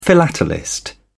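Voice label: "philatelist", British English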